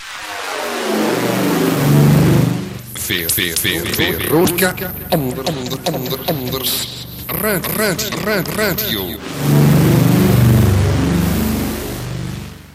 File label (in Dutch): Jingle gesproken effect; Station Calls